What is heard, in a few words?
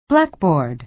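"blackboard", American English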